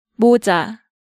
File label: "Moja", Korean